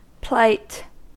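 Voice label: "plight", American English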